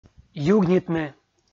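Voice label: "югнитны", Komi, male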